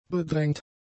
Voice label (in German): "bedrängt", German; adjectives